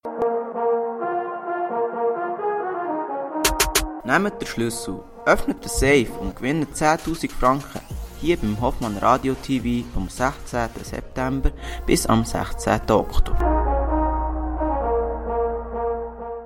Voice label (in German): Jingle; Bolligen